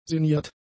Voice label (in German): "sinniert", german; adjectives